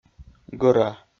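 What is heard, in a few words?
"гӧра", Komi, male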